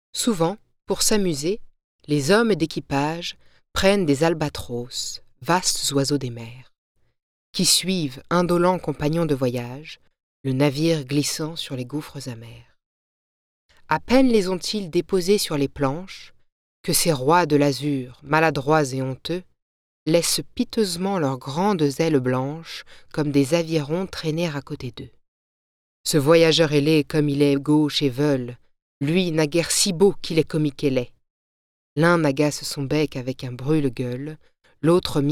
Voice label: French Poem

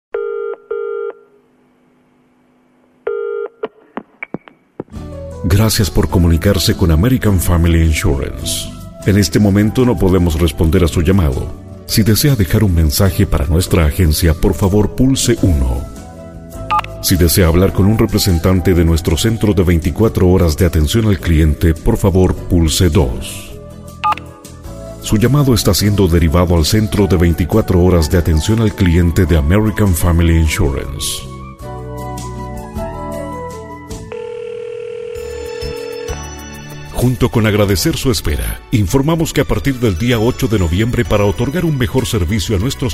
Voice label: Spanish Chilean Ivr Narration

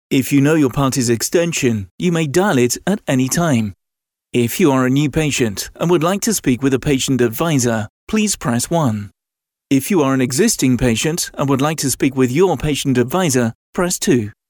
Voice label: business phone system

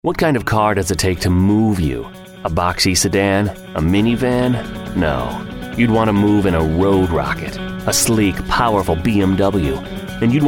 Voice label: Energetic

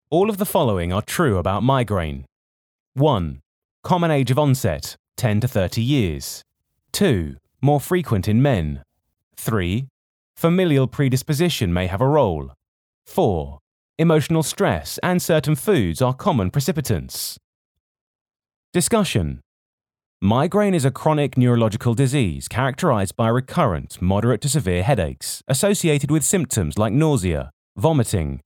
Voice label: Audiobook Sample; Migraine Training

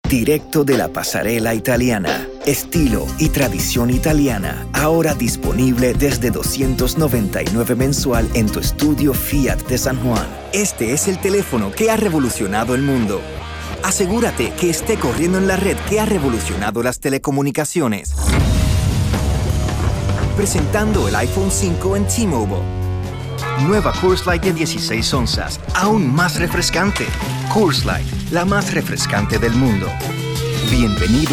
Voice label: Commercials

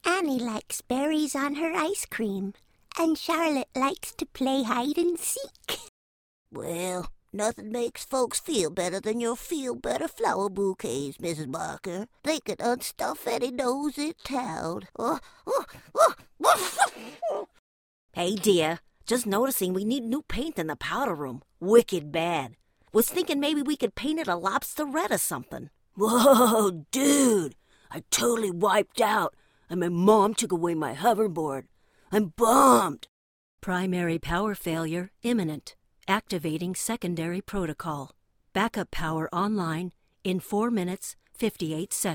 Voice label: Character Animation

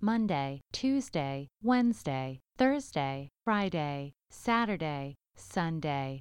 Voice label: IVR sample days

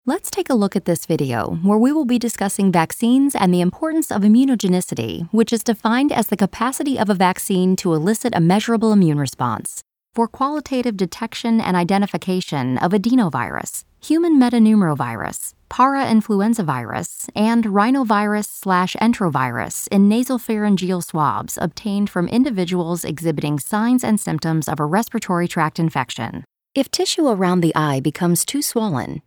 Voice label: Medical, Female Middle Aged

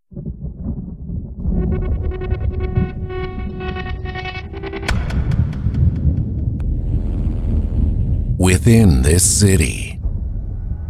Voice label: TRAILER

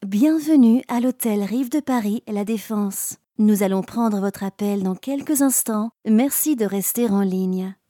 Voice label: French telephone

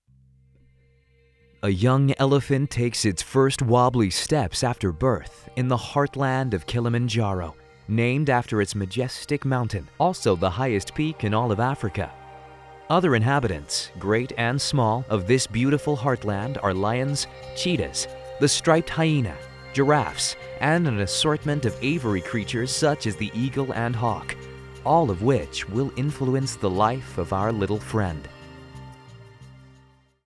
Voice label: Documentary US

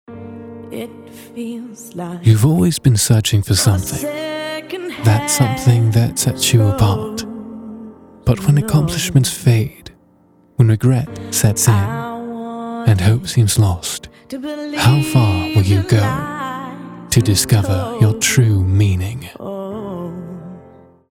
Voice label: Inspirational British

